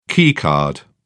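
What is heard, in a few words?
"keycard", British English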